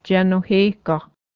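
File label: you two put on your hats, Cayuga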